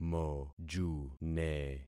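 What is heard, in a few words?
"mojune", concatenated syllables; Affixing experiment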